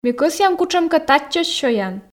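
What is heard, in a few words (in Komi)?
ми кӧсъям кутшӧмкӧ татчӧс сёян, Komi, female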